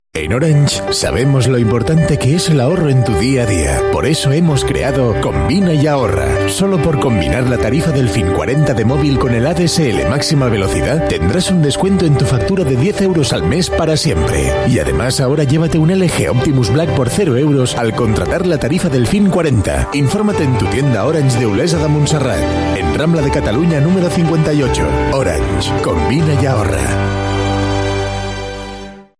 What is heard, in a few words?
M, SP SP